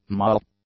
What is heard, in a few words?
"moll", English; nouns